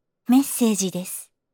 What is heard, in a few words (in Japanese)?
メッセージです（機械的）